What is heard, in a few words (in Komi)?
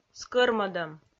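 "скӧрмӧдӧм", Komi, female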